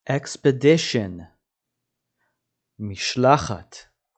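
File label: "expedition" English, "משלחת" Hebrew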